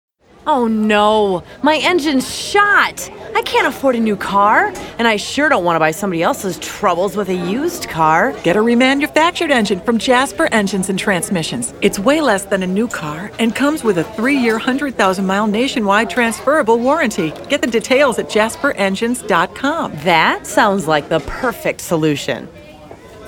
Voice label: Womens Conversation